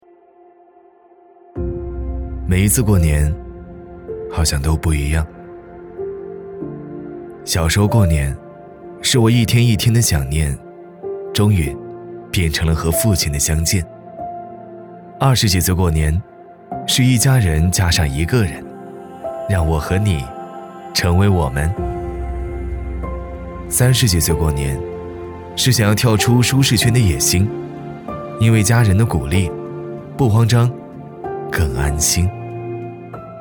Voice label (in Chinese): 男 年轻磁性; 古井贡酒